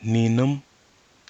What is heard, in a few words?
"ninɨm", Nafe (Kwamera)